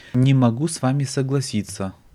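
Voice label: "ne mogu soglas", Russian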